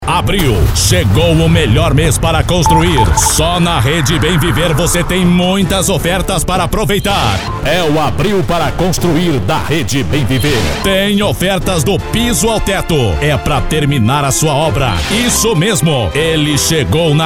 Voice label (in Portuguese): Spot Institucional